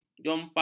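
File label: "jompak", Malay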